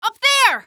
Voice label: up there, female; Half-Life 2

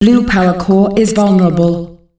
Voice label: "Blue Powercore vulnerable", announcer female; Unreal Tournament; ut2004

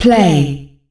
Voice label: "Play", female announcer; Unreal Tournament; ut2004

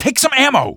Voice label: ammo, male; Half-Life 2

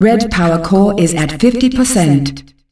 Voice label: Red Powercore damaged, female announcer; Unreal Tournament; ut2004

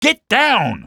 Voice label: get down, male; Half-Life 2

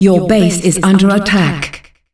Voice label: Base under attack, Announcer Voice, female; Unreal Tournament; ut2004

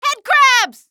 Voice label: headcrabs, female; Half-Life 2

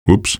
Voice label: whoops, male; Half-Life 2

